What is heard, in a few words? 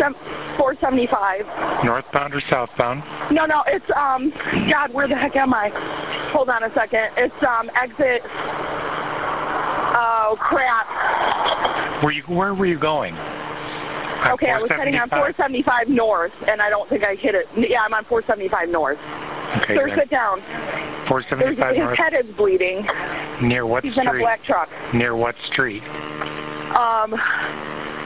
9-1-1 call Woman reporting accident; I-475 wreck